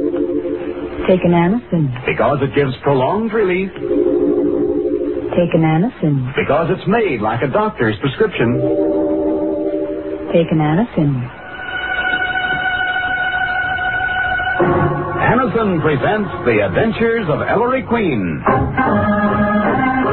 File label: Radio Intro Message